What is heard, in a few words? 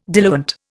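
"diluent", Indian English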